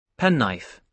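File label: "penknife", British English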